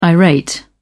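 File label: "irate", English, British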